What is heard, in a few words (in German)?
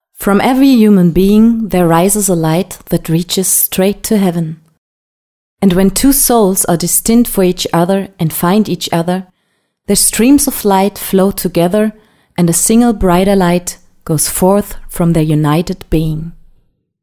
englisch; freie redner leipzig